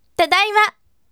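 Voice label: "tadaima", bright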